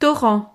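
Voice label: "torrent", French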